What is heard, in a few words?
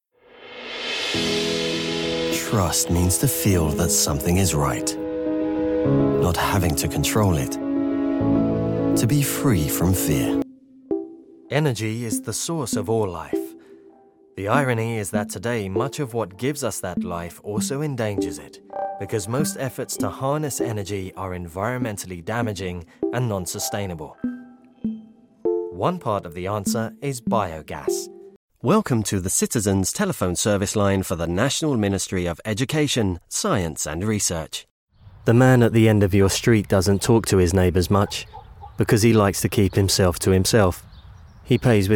Straight Talking; LOFT VOICES